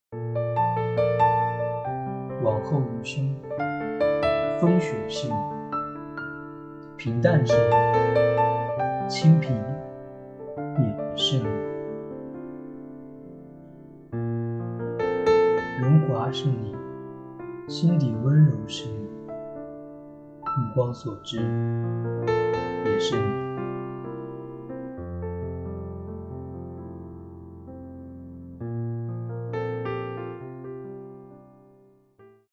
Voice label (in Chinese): 降噪处理后